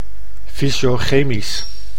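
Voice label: "fysio-chemisch", Dutch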